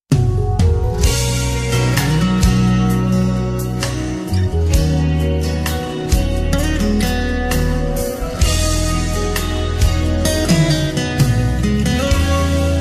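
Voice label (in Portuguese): Aniversário Evangélico Pai Masculino, telemensagem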